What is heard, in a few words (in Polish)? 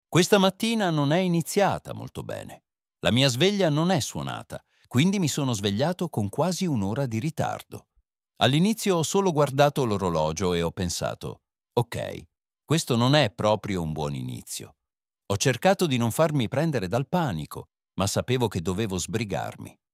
wloski